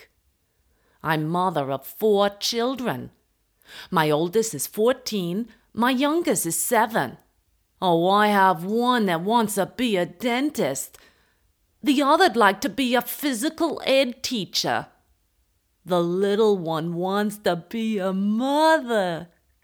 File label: Brooklyn Barmaid, Audio Drama (Hörspiel), English US